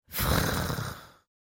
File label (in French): Frrrrr, LES ONOMATOPÉES; Frrrrrrrrrr...